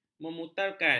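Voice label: "memutarkan", Malay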